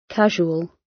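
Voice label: "casual" British; Business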